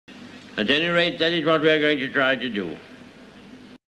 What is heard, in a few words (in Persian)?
سخنرانی; وینستون چرچیل; Winston Churchill